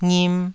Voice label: "ngim2", taiwanese syllables